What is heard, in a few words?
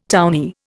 "townee", Indian